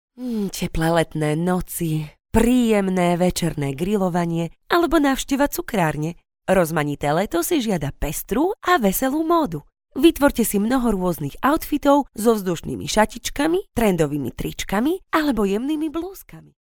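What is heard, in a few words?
Slovak, female, narration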